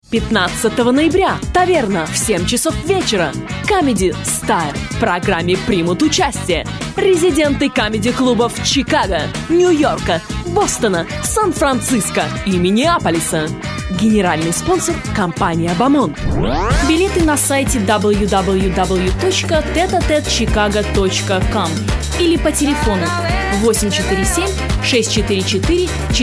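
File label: Russian commercial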